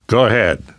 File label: lead on, survivor voice namvet; Left 4 Dead 2; left4dead2 dlc2